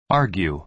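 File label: "argue", American English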